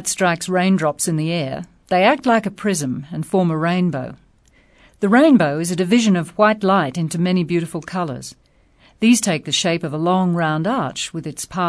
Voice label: australia